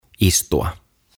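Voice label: "Istua", Finnish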